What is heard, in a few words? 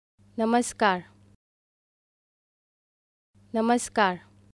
Good afternoon Hindi